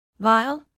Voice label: "bile", medical english